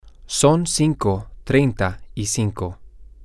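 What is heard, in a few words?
its 535, spanish, male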